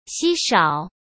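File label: "稀少", Mandarin Chinese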